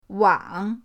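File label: "wang", Mandarin pinyin, third tone; pinyindct-open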